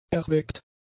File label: "erweckt", German; adjectives